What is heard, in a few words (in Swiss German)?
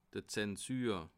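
"zensur", Bas Rhin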